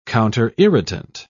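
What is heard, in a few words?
"counterirritant", British English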